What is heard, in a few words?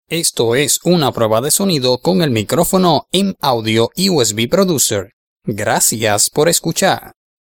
mic sweetspot test m audio usb